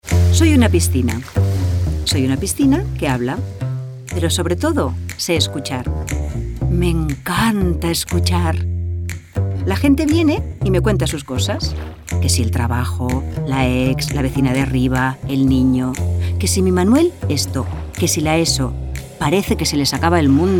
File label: female spanish event comercial